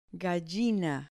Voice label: "gallina", Spanish

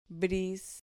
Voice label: "bris", Spanish syllable

